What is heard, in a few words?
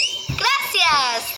thank you in spanish